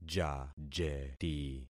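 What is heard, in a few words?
"jajeti", concatenated syllables; Affixing experiment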